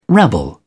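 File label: "rabble", English, uk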